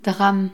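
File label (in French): "drame", French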